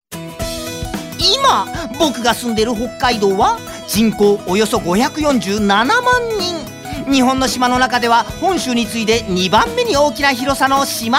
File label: narration